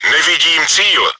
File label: target unlocked, cz; Nevidím cíl!; Czech; Не вижу цель!; World of Tanks